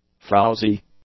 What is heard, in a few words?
"frowzy", English; adjectives